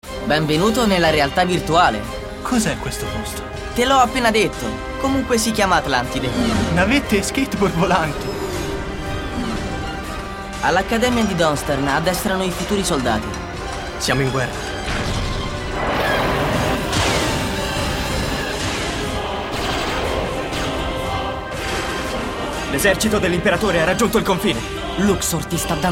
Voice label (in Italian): booktrailer doppiaggio